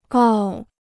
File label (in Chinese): "告", Chinese